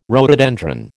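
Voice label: "rhododendron", American English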